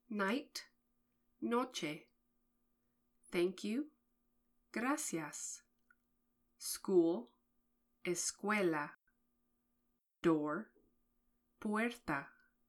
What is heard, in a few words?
English Spanish words; Grade4